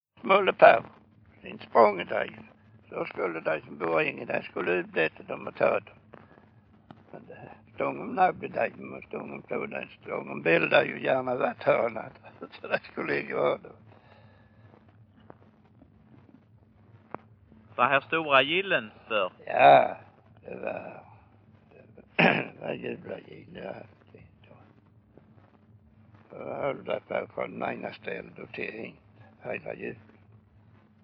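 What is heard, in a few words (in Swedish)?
Örkelljunga Skåne, dialekter; Folkminnen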